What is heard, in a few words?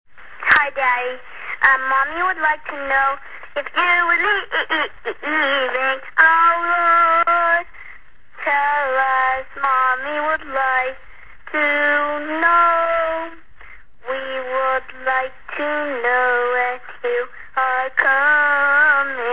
Voicemail